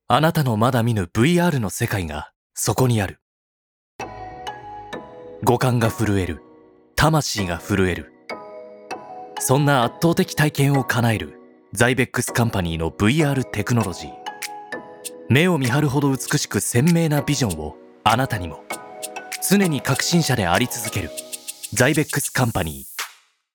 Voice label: narration

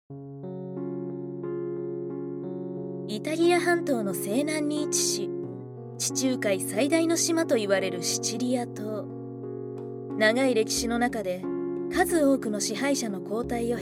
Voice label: Documentary Nareeshon Straight

